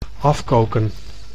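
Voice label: "afkoken", Dutch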